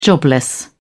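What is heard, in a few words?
"jobless", British English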